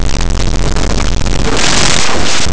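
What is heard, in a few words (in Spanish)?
"alto", Spanish, laterals; laboratori fonetica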